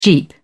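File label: "jeep", British English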